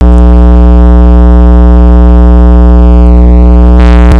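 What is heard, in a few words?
"pencil", spoken word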